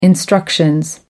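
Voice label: "instructions", British English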